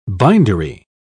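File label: "bindery", English, uk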